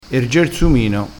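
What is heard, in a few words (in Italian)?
"gersumino", vocabolario dialettale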